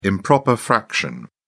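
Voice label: "improper fraction", British English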